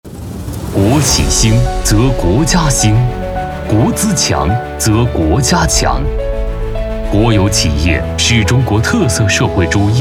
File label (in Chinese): 男 专题 党政建设